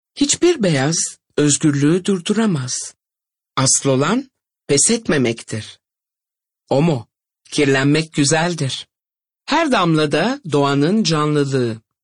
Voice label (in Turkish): REKLAM